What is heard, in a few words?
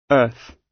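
"earth", British English; Space, the planets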